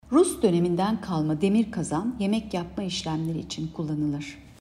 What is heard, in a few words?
turkce